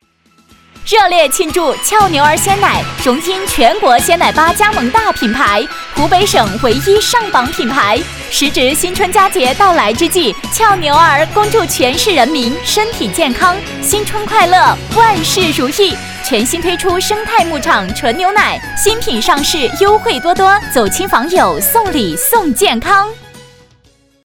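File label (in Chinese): 广告喜庆鲜奶; 俏牛儿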